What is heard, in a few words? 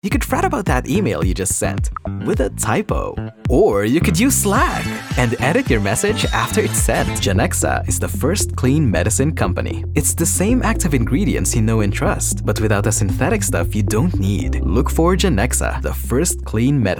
English Commercial, Filipino